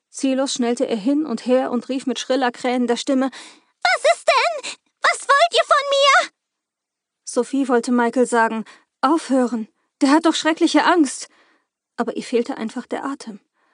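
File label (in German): Hörbuch Demo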